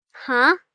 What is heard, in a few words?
"Huh", Response, 1 girl, 10 yrs.; Exclamation; VoiceBox